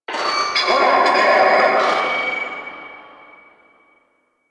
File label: Vocal Quest Loudspeaker, Town Escape; Quests; Duviri; Ambience